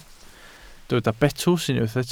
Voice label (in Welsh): "betws", Welsh